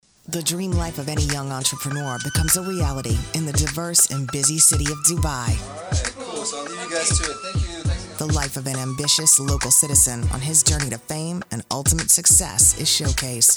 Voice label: TV Promo

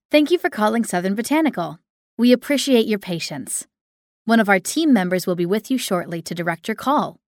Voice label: voice mail; Southern Botanical

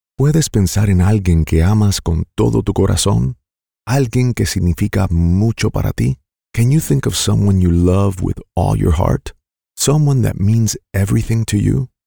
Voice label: bilingual Department of Health

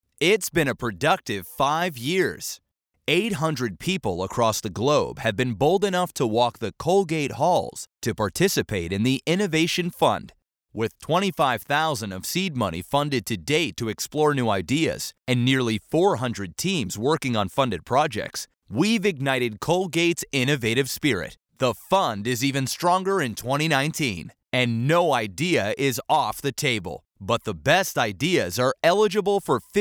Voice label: Announcer Read

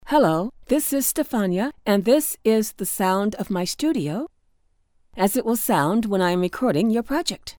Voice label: Studio Quality

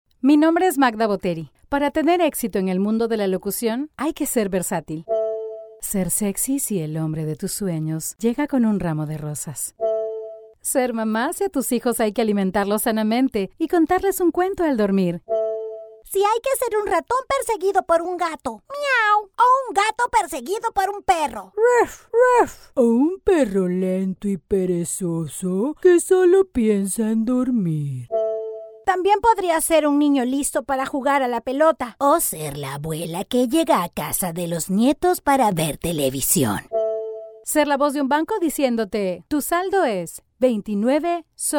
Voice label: different voices

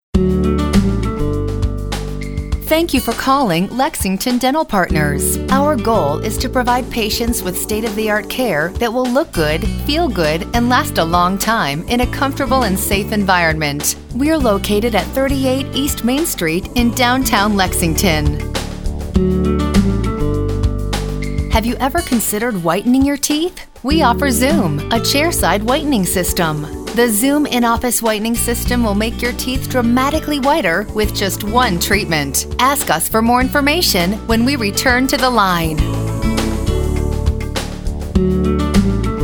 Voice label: On-Hold

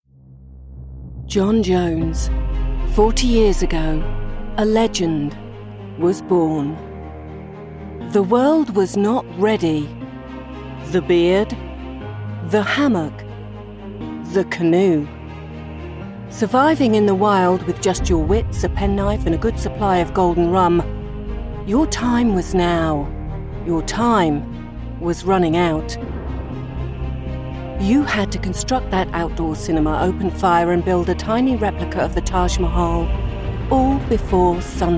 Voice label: Parody Dramatic VO

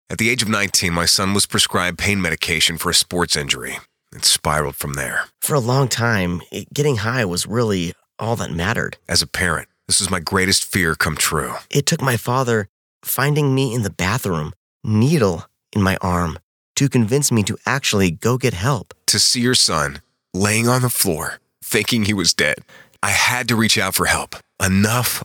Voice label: Radio Commercial; Footprints To Recovery

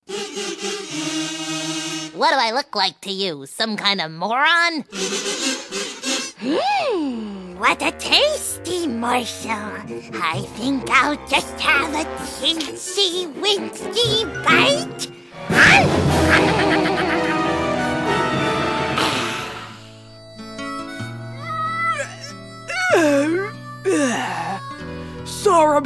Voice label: Animation Reel

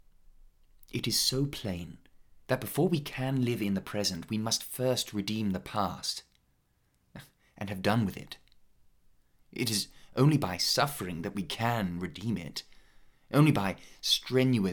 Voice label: classic read

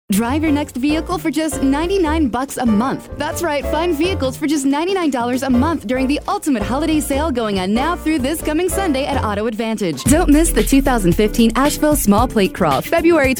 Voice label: Straight reads

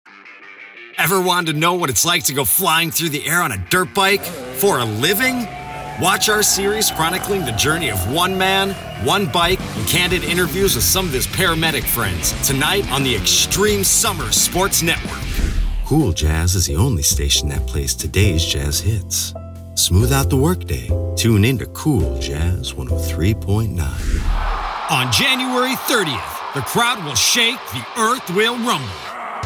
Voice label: Radio Demo VO

